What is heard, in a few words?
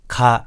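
"ka", Japanese; hiragana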